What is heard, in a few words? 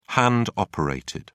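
"hand operated", British English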